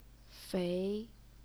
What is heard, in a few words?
"fei2", chinese pinyin